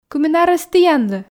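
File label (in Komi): кымын арӧс тiянлы, Komi, female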